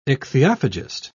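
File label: "ichthyophagist", British English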